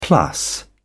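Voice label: "plus", British English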